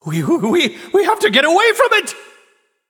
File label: Male Nightborne